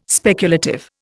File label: "speculative", Indian